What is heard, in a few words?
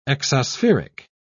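"exospheric", British English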